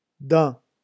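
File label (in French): "dansa", French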